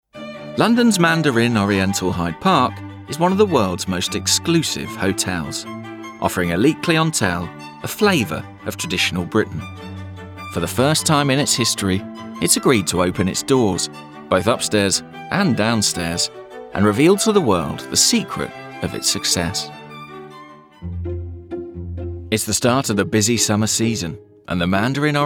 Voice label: Documentary; A Very British Hotel